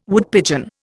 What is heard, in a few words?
"Woodpigeon", Indian